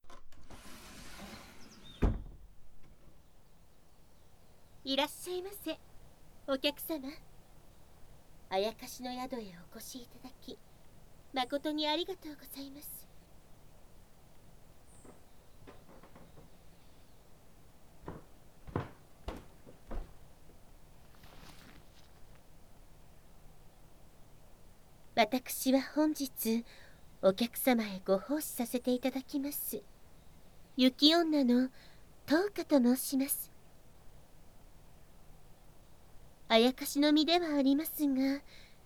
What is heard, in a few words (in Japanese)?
序章 お出迎えのまったり囁き手コキとお掃除フェラ（囁きブースト 効果音大 環境音）; ASMR